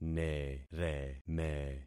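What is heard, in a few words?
"nereme", concatenated syllables; Affixing experiment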